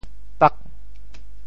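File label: "pak8", Teochew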